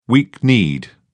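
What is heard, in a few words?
"weak-kneed", British English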